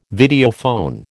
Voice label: "Videophone", American